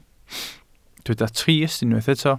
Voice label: "trist", Welsh